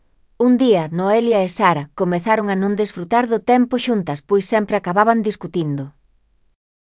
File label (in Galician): NARRACION; Os textos narrativos